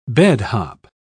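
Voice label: "bedhop", English, British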